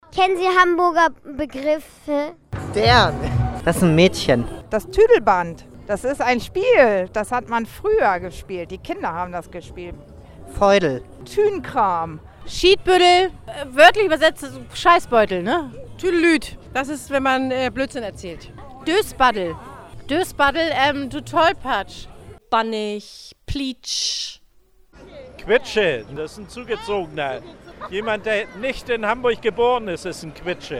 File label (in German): umfrage hamburgisch